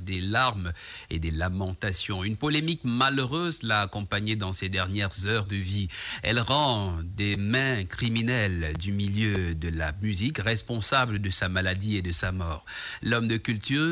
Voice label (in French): parler a la camerounaise; vivre ensemble